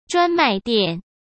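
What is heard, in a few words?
"专卖店", Mandarin Chinese; chinese flashcards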